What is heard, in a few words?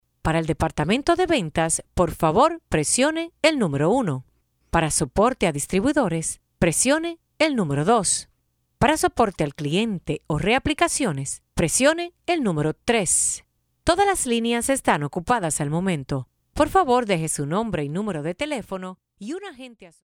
voicemail greeting; clo2 sterilization